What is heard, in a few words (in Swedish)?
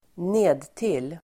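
"nedtill", Swedish; nertill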